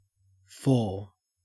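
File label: "four" Australian English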